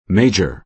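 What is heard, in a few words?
"major", American English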